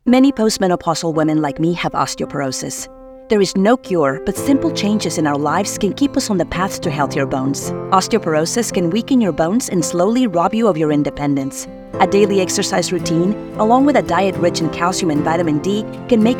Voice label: Eng; BHOF Office